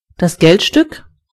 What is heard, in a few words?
"coin", de; gcompris